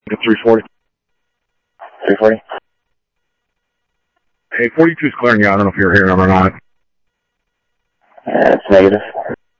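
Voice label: Sheriff West County, scanner audio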